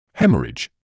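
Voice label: "haemorrhage", British English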